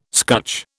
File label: "scutch", British